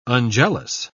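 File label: "unjealous", English, British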